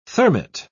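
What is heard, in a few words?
"thermit", British English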